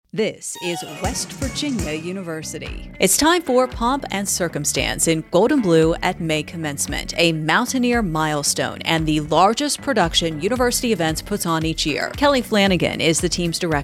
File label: radio spot; 2026 may commencement; wvutoday on the radio